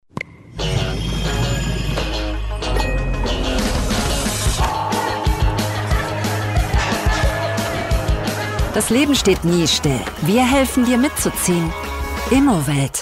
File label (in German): TV spot jung zugewandt; Immowelt